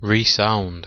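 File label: "resound", British English; pronunciations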